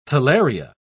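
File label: "talaria", British English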